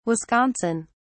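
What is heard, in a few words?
"wisconsin", English, British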